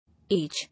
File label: "Age", English